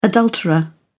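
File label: "adulterer", British English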